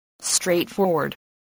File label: "straightforward", spelling